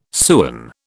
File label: "sewen", British